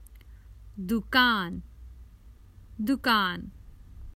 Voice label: the shops, Common Hindi Phrases for travel

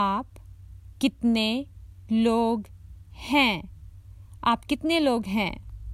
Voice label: Common Hindi Phrases for travel How many are you

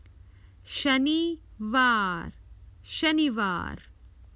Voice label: Common Hindi Phrases for travel Saturday

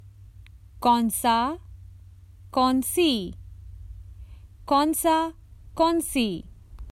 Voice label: Which, Common Hindi Phrases for travel

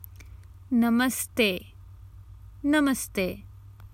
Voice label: Common Hindi Phrases for travel Hello

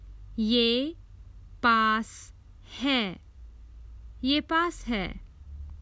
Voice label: Common Hindi Phrases for travel Its close by

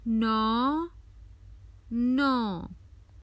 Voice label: Common Hindi Phrases for travel Nine

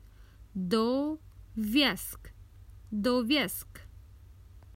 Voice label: Common Hindi Phrases for travel Two adults

